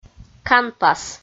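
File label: "канпас" Komi, female; kpv audio dict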